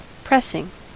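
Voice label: "pressing", US English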